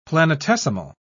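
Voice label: "planetesimal", British English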